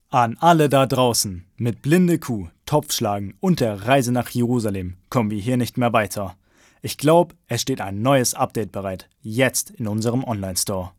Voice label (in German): jungen